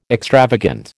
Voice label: "extravagant", American